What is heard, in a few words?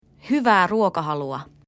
bon appetit, finnish